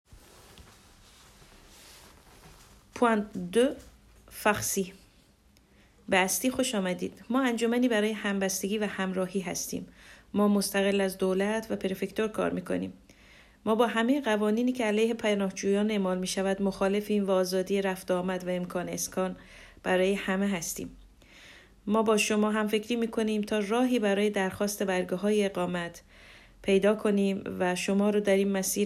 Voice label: farsi